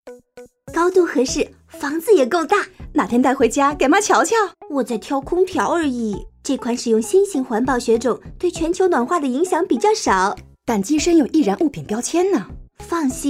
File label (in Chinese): 普通話女聲 Female Mother Daughter